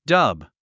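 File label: "dub", English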